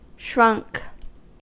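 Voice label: "shrunk", American English; pronunciations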